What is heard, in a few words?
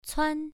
"cuan1", pronunciation